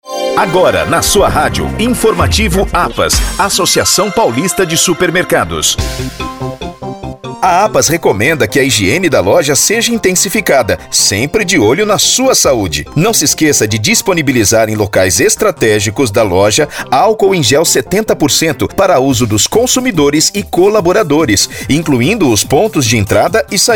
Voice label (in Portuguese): SPOT HIGIENIZAÇÃO; APAS